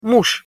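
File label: "muz", Russian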